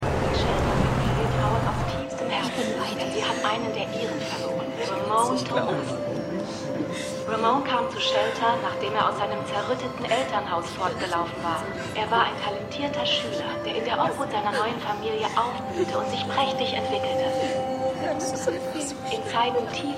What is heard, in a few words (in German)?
Shelter Sprecherin; Truth Be Told